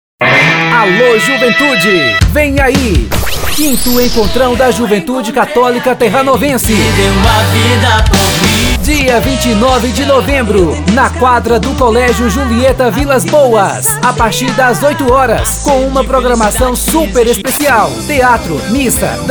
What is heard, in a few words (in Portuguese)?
vinhetas para igrejas voz locutor; Encontrão da Juventude Católica Terranovense